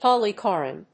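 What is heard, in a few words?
"polychoron", English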